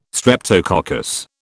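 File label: "streptococcus", British English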